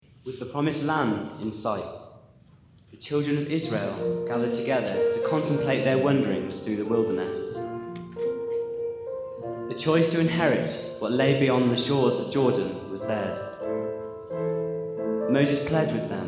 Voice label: Narration